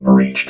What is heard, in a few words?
"breached", robot vox